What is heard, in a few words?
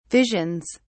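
"visions", English, British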